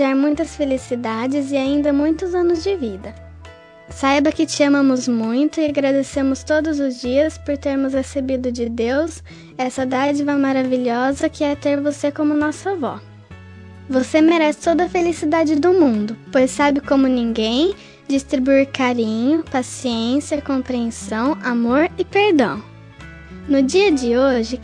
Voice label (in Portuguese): aniv de avo voz infantil plural f; aniver avos